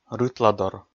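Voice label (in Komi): "рытладор", Komi, male; kpv audio dict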